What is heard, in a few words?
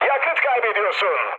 tank damaged, mood high, speech tur; War Thunder